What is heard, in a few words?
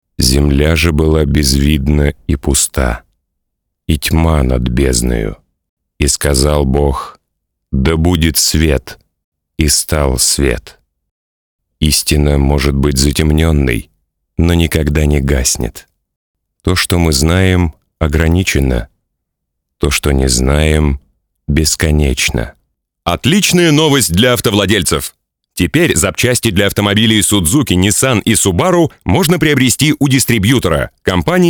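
Russian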